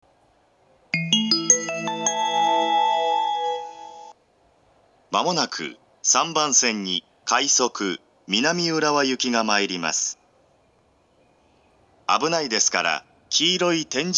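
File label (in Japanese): 接近放送, 東京駅; ３番線接近放送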